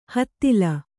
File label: "ಹುದಲು", kannada